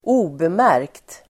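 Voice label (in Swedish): "obemärkt", Swedish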